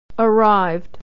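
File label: "arrived", American English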